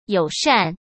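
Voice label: "友善", Mandarin Chinese; chinese-flashcards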